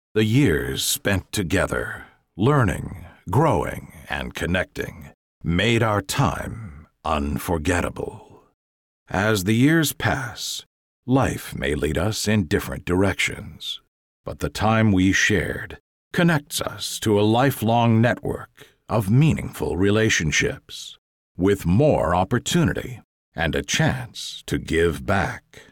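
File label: Narration Reflection Warmth Encouraging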